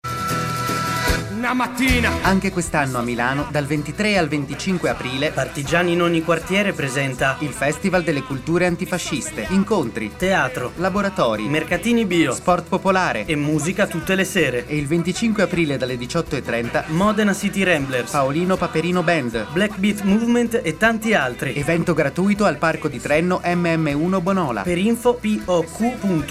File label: SPOT RADIO POP